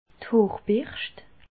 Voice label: "hoorberscht", alsace

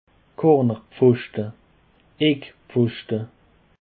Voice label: "cornerpfoschte", Alsace

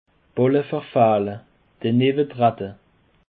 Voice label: "balleverfehle", Alsace

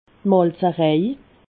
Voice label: "malzerej", alsace

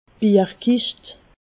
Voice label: "bierkischt", alsace

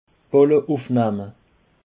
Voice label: "balleufnehme", Alsace

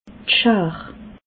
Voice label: "schar", alsace

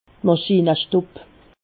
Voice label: "maschinestub", alsace

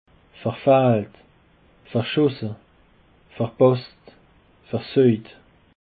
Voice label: "verfehlt", Alsace

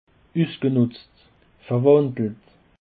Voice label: "usgenutz", Alsace

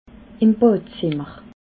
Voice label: "badzimmer", alsace

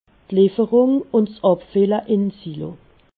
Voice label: "silo", alsace

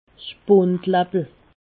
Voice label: "spundlappel", alsace

